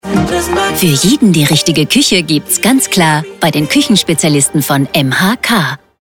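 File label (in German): Sprecherdemo, TV-Werbung; MHK TV; Hörbeispiele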